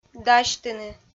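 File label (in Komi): "дасьтыны", Komi, female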